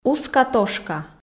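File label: "уска-тошка", Komi, female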